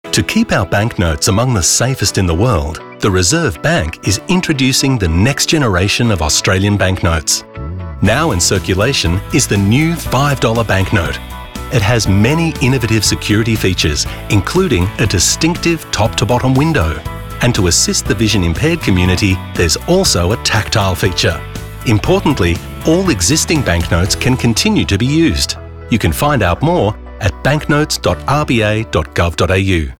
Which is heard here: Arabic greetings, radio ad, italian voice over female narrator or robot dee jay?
radio ad